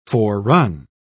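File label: "forerun", British English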